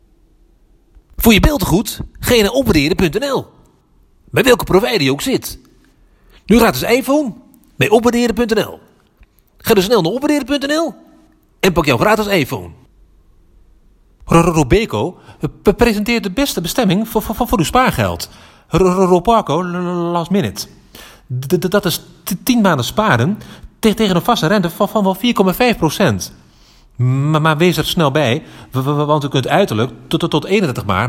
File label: commercial